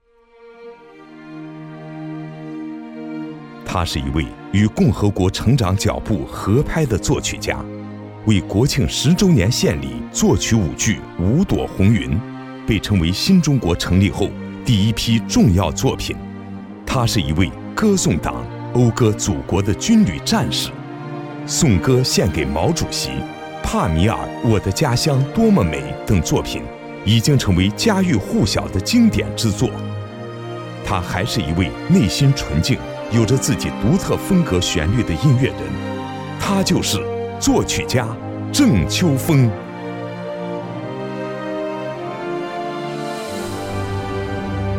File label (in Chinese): 男 人物介绍